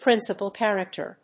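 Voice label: "principal character", English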